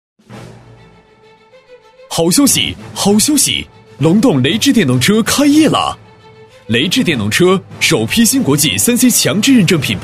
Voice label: 男,广告,电动车开业啦; 雷致

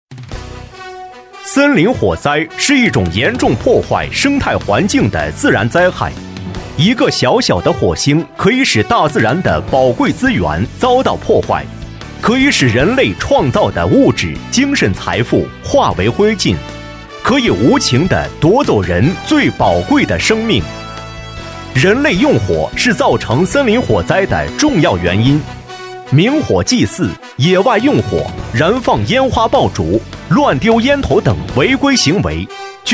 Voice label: 男 广告 森林防火告知

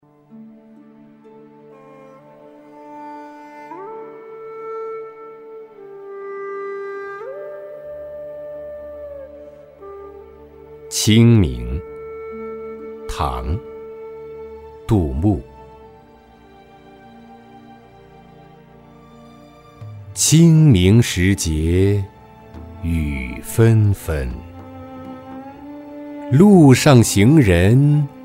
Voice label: 男, 诗歌朗诵; 清明·杜牧

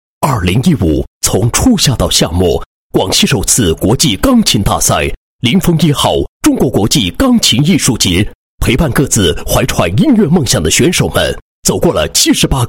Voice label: 男 颁奖 开幕词; 国际钢琴大赛颁奖典礼开幕词

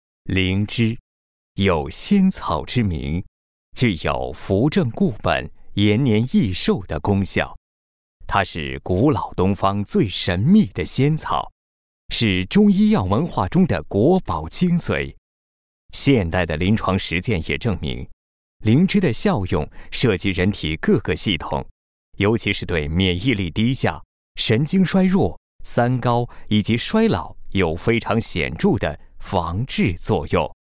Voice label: 男, 解说 仙草灵芝; 古老东方最神秘的

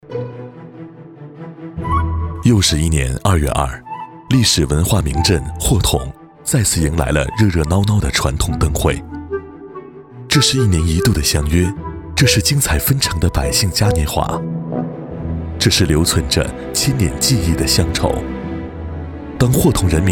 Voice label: 男60 专题 舌尖风格; 国语男声配音; 交广主播; 功夫不负有心人

